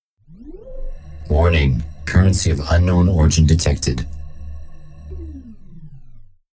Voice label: tip currency of unknown origin male spark personality